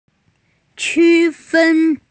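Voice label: "distinguish", female; qu1fen1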